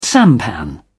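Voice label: "sampan", British English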